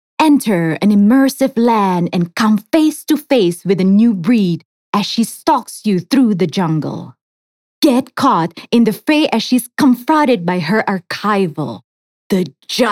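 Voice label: Casual TVC English; Ragnarok Park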